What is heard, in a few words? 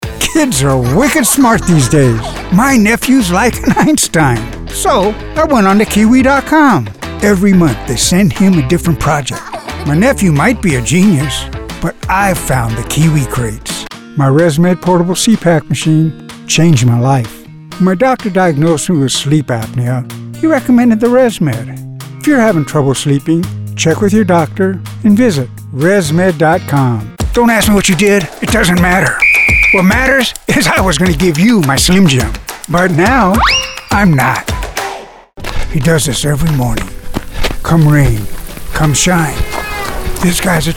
Commercial